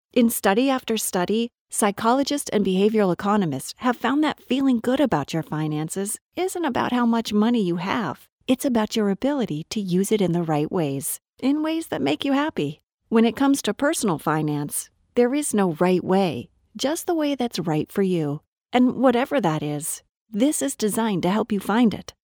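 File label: English American, female, voice over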